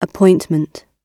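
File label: "appointment" British English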